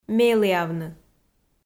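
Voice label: "меліавны", Komi, female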